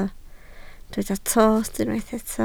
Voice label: "tost", Welsh

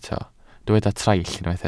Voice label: "traill", Welsh

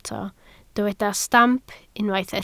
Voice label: "stamp", Welsh

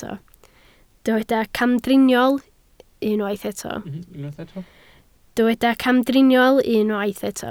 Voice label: "camdriniol", Welsh

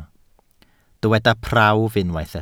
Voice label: "prawf", Welsh

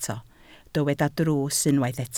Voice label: "drws", Welsh

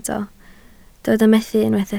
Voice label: "methu", Welsh

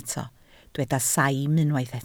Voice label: "saim", Welsh

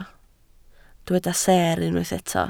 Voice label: "seer", Welsh

